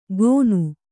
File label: "ಗೋನು", Kannada